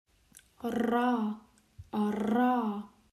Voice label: Voiced postalveolar trill

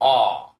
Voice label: Open central rounded vowel

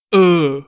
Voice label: Mid central rounded vowel

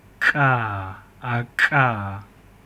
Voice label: Velar ejective affricate

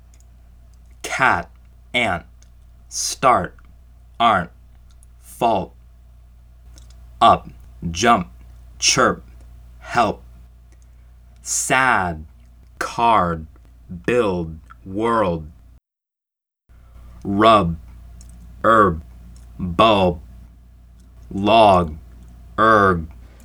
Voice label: American English unreleased stops

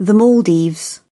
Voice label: British English Maldives pronunciation

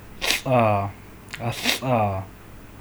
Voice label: Alveolar lateral ejective fricative

